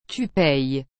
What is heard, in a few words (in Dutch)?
"tu payes", frans; payer